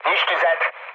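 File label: attack position, mood med, Hungarian; speech; War Thunder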